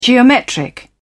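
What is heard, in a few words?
"geometric", British English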